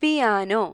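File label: "piano", Malayalam; gcompris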